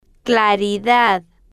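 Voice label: "claridad", Spanish, female, slow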